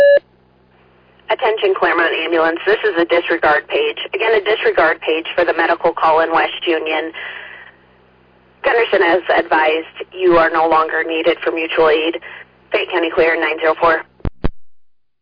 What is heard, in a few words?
Fire, dispatch; Clermont